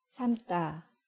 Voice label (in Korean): "삶다", Korean; Korean words about cooking